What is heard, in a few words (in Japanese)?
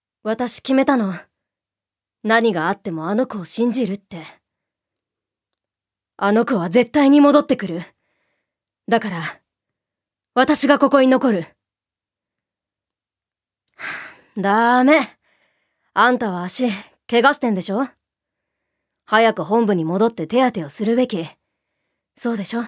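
セリフ, 女性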